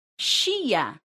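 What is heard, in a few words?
"ŝia", esperanto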